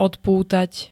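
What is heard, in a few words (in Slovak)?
"odpútať", Slovak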